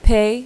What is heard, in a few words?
"pay", casino